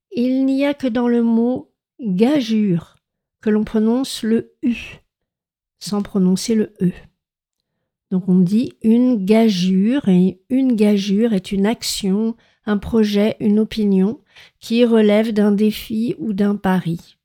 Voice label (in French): "gageure", consonnes